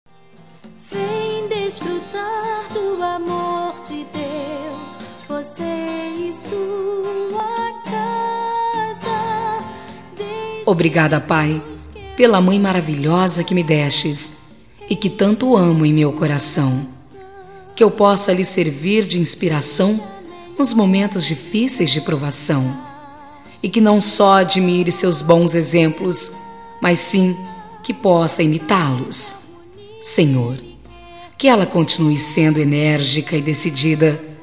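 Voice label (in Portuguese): Evangélica Aniversário de Mae, female, telemensagens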